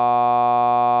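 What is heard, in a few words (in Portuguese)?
Sinais de voz com variação de R_g, R_g=1.6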